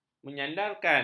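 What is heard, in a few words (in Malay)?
"menyandarkan", Malay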